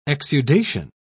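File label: "exudation", British English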